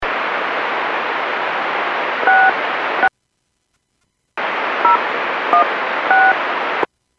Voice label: Airports 121.5 Emergency, Radio

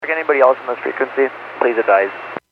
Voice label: Airports 122.7, Radio; KSTE

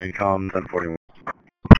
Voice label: Police Sheriff Dispatch; Radio

